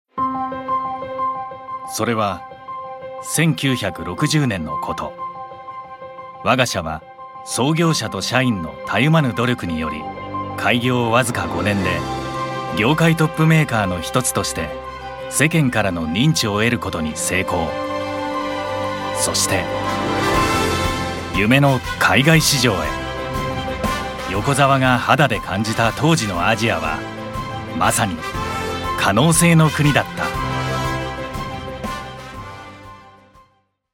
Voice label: japanese documentaries

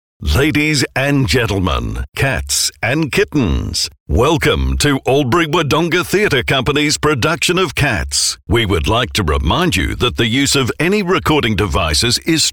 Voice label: english australian announcements

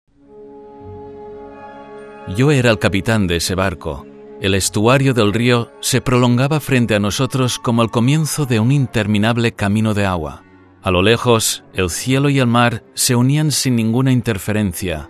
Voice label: spanish narration

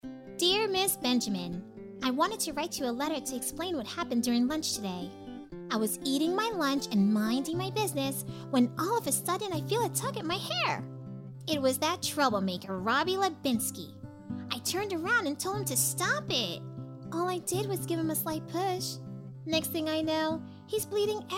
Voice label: english american animation

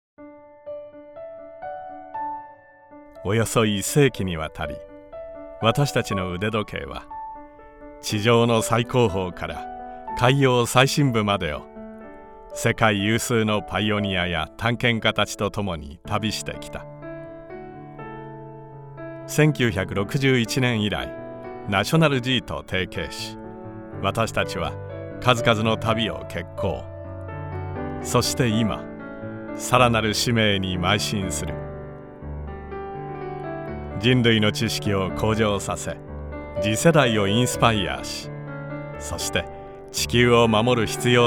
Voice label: japanese commercial

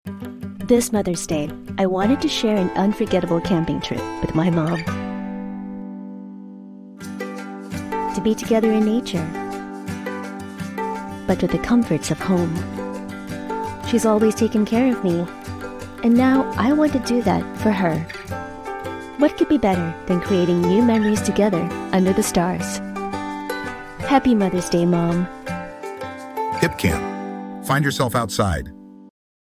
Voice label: english international commercial; Una actriz de voz cálida, amigable y versátil con atractivo global.

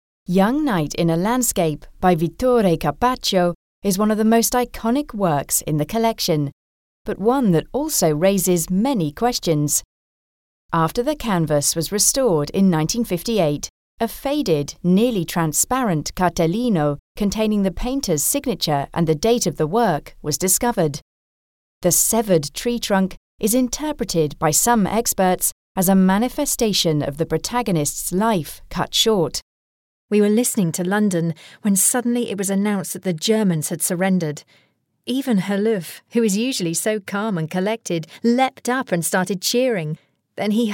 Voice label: english british audio guides

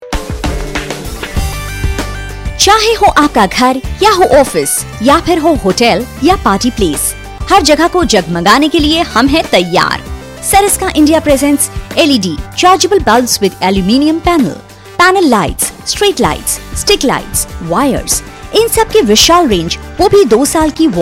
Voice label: Hindi commercial

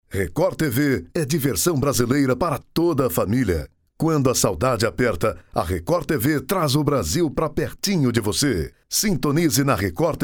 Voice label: portuguese brazilian promos

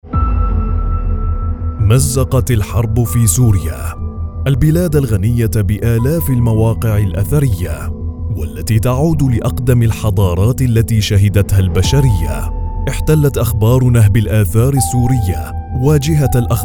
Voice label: arabic political ads

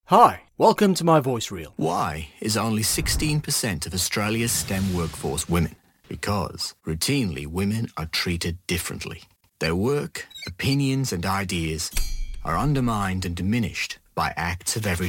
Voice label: english australian commercial

